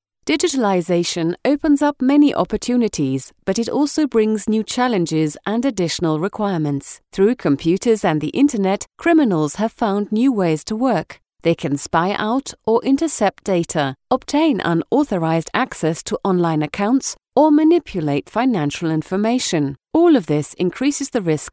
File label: EN E Learning; DMG MORI